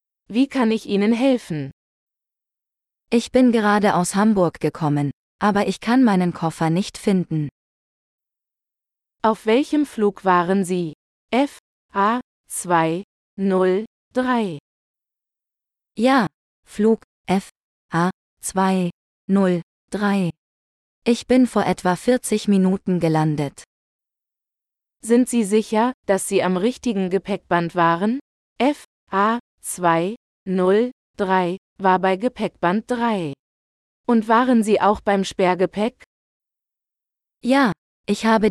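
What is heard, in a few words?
Lost luggage - Dialogue, German; de-en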